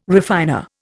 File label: "refiner", Indian